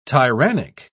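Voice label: "tyrannic", British English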